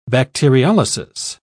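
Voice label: "bacteriolysis", British English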